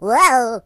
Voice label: "Whoopsee", Russian; hedgewars; HedgewarsMobile

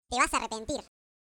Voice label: Youllregretthat, voices es; Default es

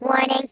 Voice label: "What the", robot voice; hedgewars

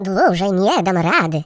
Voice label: Byebye, Russian; hedgewars; Russian pl

